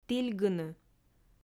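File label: "тільгыны", Komi, female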